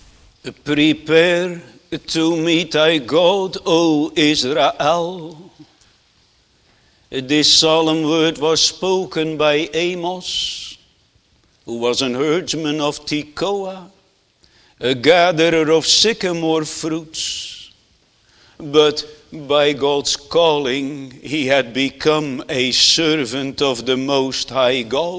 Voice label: Sermon